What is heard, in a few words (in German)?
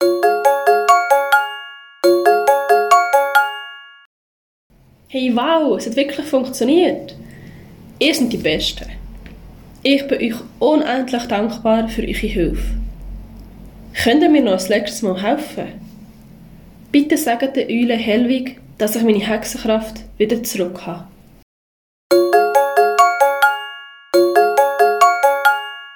Hexe geht mit Jingle